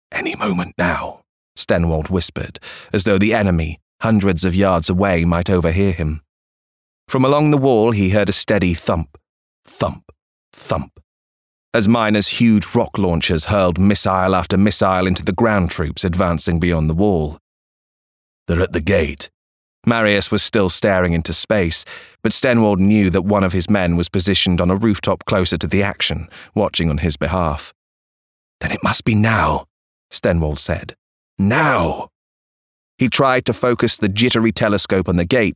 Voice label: Audiobook

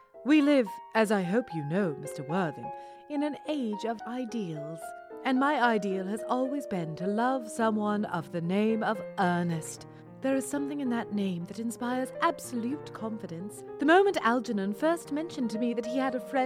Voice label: British Characters Sample

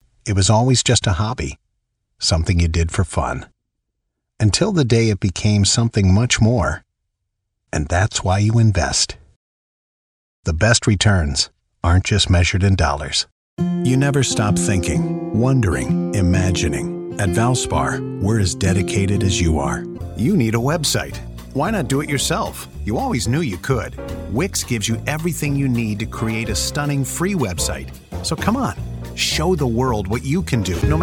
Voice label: Conversational Warm Sincere Story Teller

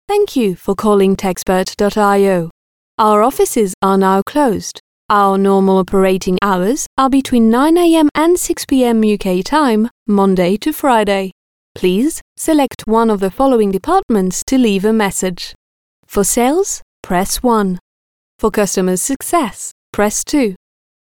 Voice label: IVR ENG

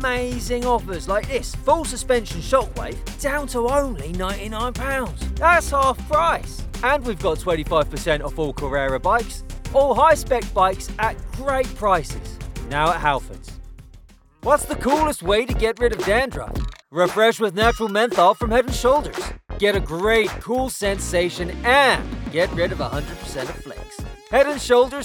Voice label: Commercial

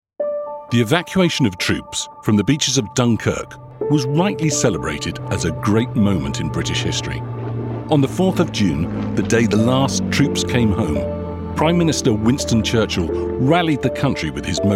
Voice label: Narration

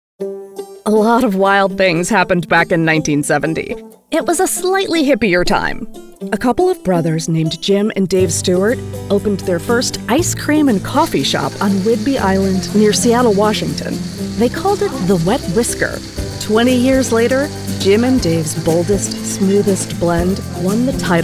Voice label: EXPLAINER

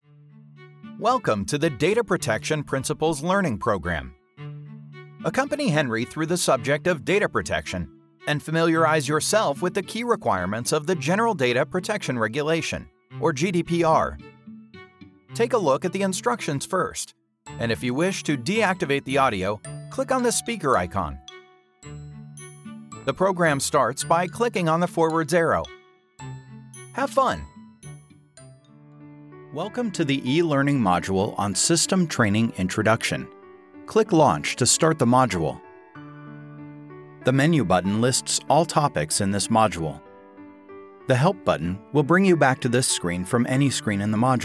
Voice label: Elearning

